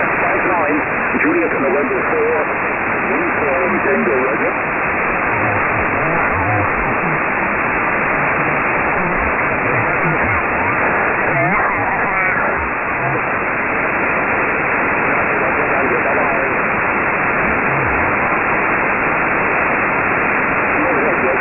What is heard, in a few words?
qso and cq